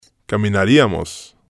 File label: "caminariamos", spanish